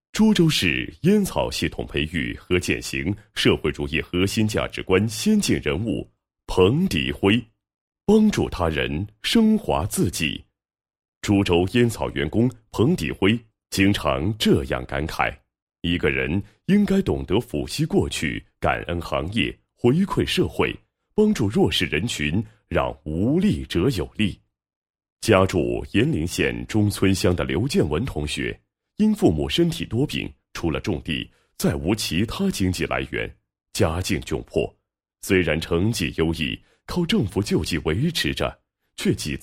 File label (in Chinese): 男 人物 践行社会主义核心价值观先进人物